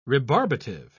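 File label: "rebarbative", British English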